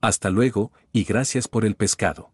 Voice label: so long Spanish